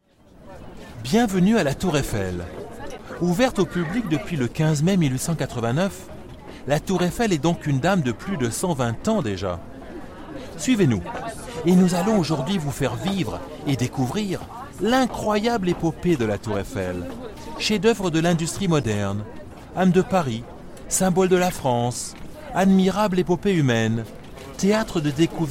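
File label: French, Audio Guide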